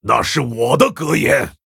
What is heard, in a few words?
Male Orc Ogre MIRROR START, zh